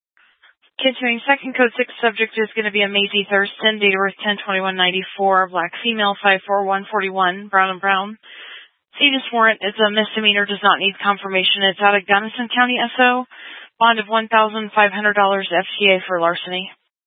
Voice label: Police Dispatch